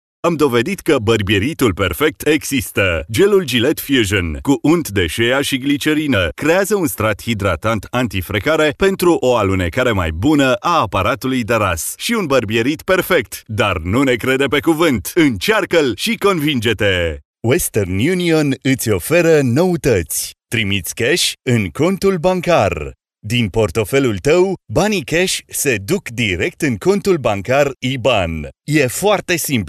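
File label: Romanian male voice over, sales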